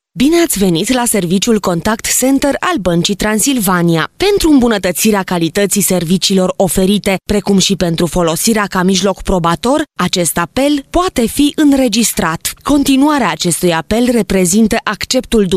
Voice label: Romanian, Female